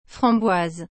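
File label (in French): "framboise", French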